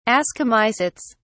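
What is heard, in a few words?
"ascomycetes", British English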